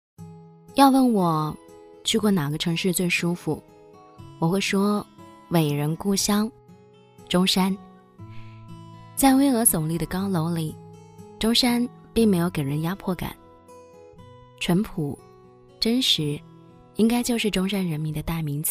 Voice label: 女 素人 旅游解说; 模仿房琪

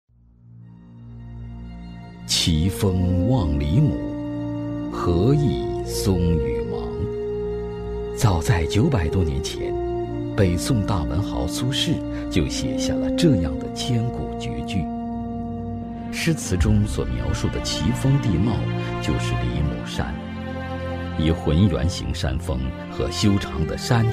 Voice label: 男, 景色宣传片, 磁性优雅; 黎母山

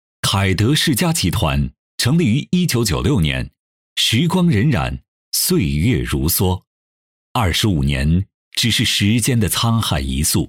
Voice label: 男 企业宣传 磁性; 男178-磁性舒缓 大气浑厚; 凯德集团

